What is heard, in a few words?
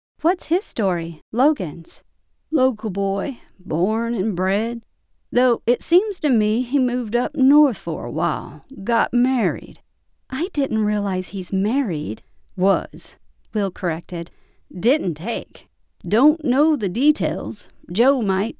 Audibook